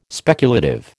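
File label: "speculative", American English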